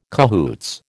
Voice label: "cahoots", American